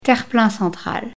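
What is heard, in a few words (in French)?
"terre-plein central" French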